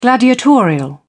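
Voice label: "gladiatorial", English, British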